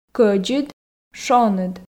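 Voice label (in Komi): кӧдзыд шоныд, Komi, female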